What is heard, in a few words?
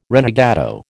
"renegado", American